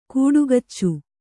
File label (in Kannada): "ಕಾಡ್ಗಿಚ್ಚು", Kannada